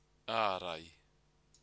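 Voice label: "arai", Maori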